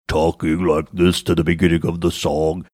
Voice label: saying talking like this at beginning of song pitched down voice